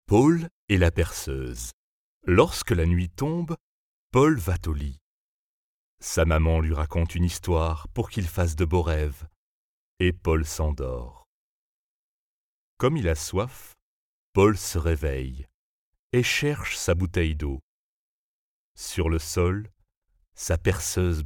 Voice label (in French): voix grave douce conte Enfants; Perceuse